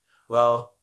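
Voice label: Voice Acting, Spanish, male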